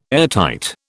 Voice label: "airtight", British